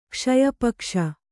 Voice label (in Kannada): "ಕ್ಷಯಪಕ್ಷ", Kannada